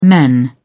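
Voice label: "men", British English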